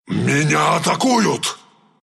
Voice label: under attack, ru; dota2